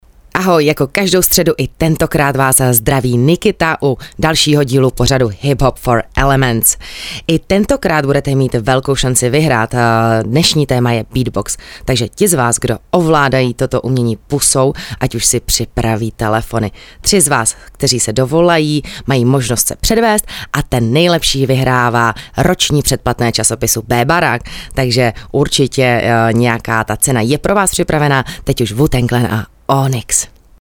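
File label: female Czech commercial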